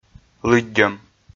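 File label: "лыддьӧм", Komi, male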